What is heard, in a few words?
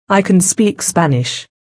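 I can speak Spanish, British English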